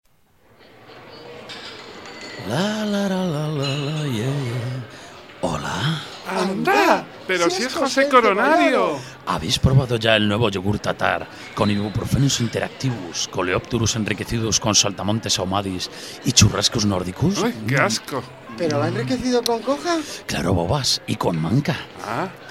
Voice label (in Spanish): Publicidad; Yogur tatar